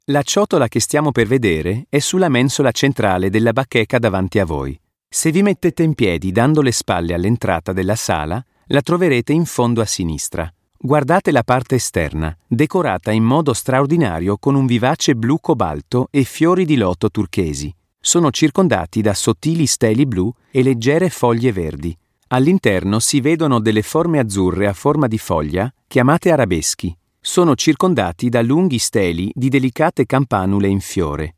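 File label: audio guide italian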